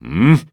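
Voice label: story, Japanese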